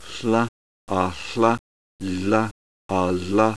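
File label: lateral fricative; ipa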